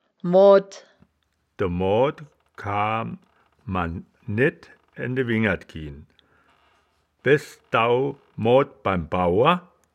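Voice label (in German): "moad", wittlicher woerterbuch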